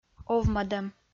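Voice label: "овмӧдӧм", Komi, female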